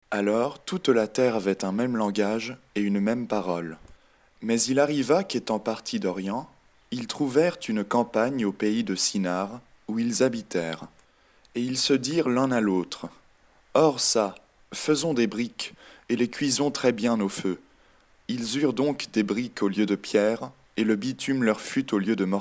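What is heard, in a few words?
French; ALors toute la terre vniuerſelle eſtoit dung meſme language & parolle.; babel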